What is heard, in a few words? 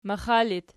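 "maxa" locative, Archi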